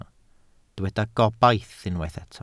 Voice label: "gobaith", Welsh